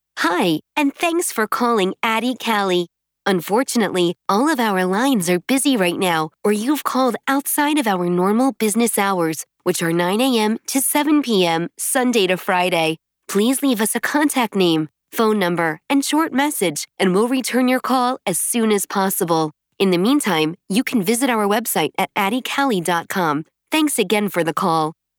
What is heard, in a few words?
Phone VO Voicemail; Atticali